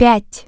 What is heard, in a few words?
"0", Russian Human Voice Tone; LG GX200; Keypad Tones